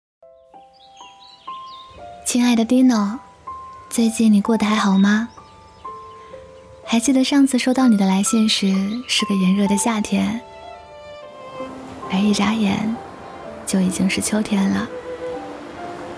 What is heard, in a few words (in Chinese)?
文艺短片配音